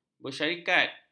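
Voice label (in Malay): "bersyarikat", Malay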